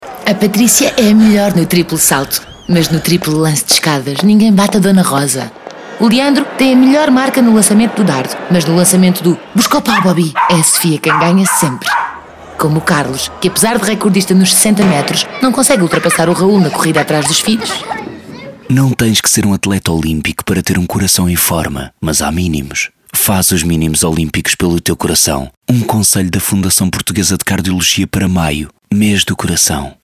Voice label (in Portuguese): SPOT RADIO SEM MUSICA